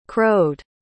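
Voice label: "crowed", English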